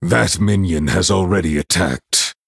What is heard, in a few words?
Male Night Elf